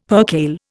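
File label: "percale", Indian English; Dictionary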